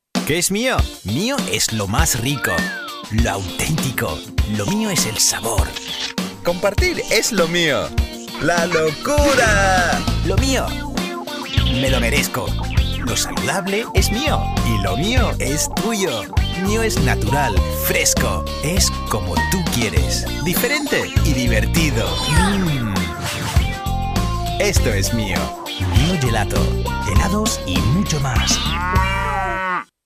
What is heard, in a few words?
castilian male spanish commercial